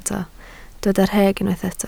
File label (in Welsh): "rheg", Welsh